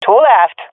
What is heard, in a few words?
enemies left two, swat fem; vo; Counter Strike Model Pack; CS:GO